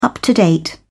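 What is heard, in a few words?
"up-to-date", English, British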